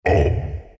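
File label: pain, Chinese; Chaknight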